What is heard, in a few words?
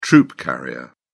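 "troop carrier", British English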